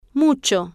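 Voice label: "mucho" Spanish, female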